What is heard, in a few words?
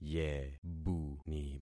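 "yebuni", concatenated syllables; Affixing experiment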